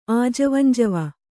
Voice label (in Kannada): "ಆಜವಂಜವ", kannada